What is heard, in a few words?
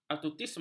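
"autisme", Malay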